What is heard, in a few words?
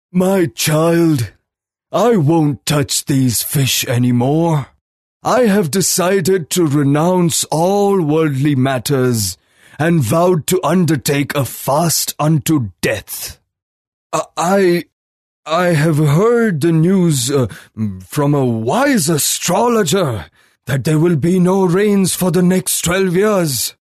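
Marathi, male, English; indian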